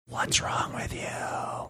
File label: whispering; whats wrong with you